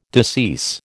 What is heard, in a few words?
"decease", American